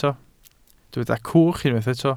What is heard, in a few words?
"cwch", Welsh